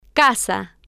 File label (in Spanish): "ca-sa", Spanish